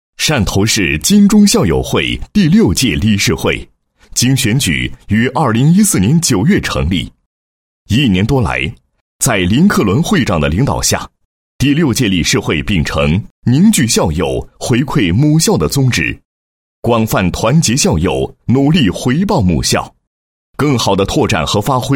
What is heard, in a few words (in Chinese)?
男80 校友会; 金中校友会; 央视农广